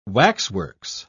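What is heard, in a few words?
"waxworks", British English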